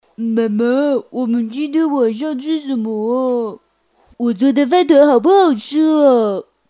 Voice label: 角色模仿, 国语女声配音; 蜡笔小新

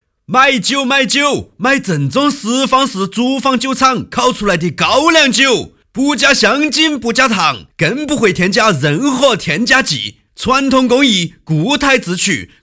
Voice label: 男 方言 高粱酒

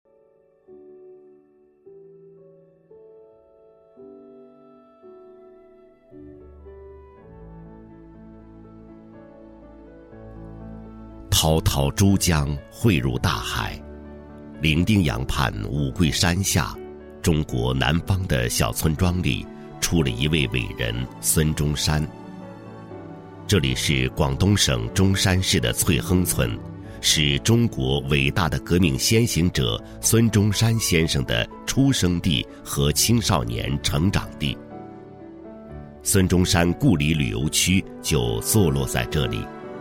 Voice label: 男 专题 旅游区 大气磁性; 孙中山故里旅游区; 红色专题

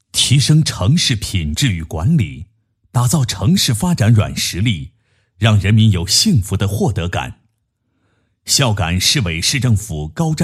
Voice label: 男 专题片; 四城同创

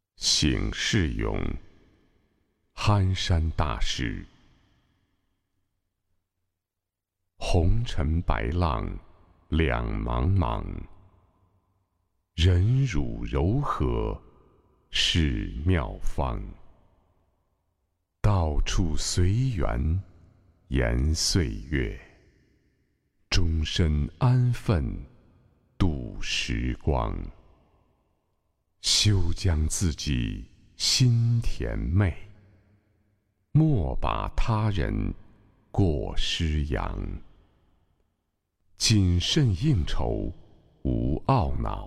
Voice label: 诗歌 朗诵配音片段; 孙悦斌诗歌-醒世咏

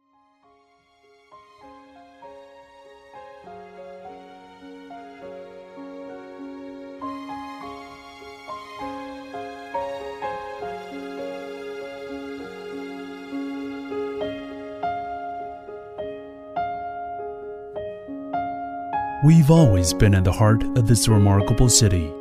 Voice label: 英男, 英文, 广告

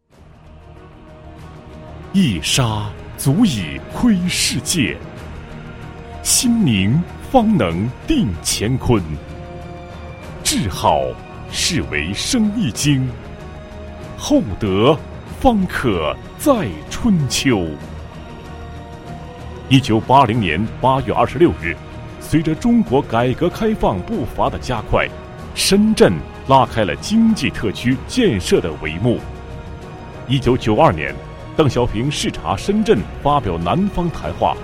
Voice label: 男 专题 企业宣传简介, 国语男声配音

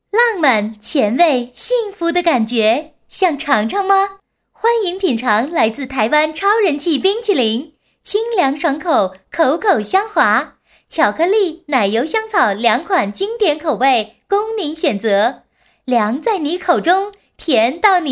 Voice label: 女 促销, 国语女声配音; 台湾超人气冰淇淋

